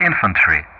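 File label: "infantry", English; Ka-50; Player Target; DCS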